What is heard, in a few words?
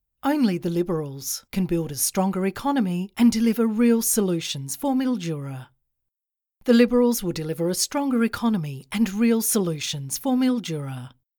english australian political ads